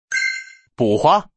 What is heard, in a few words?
"buzhang" man, mahjong